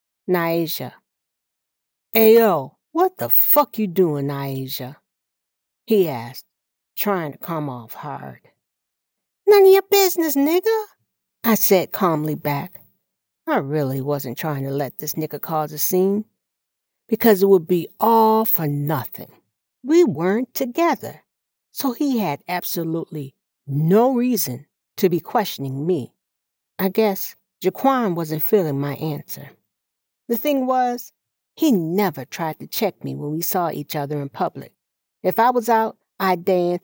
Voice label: Urban Contemporary